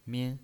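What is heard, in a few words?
"min", pinyin voice, Mandarin Chinese, first tone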